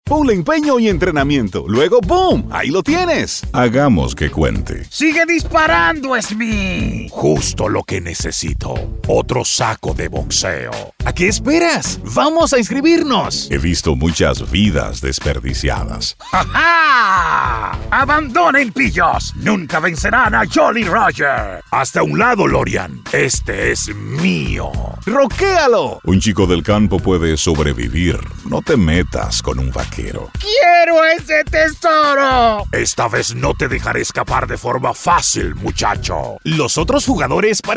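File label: Character & Animation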